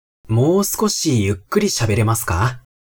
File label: man